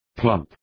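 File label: "plump", English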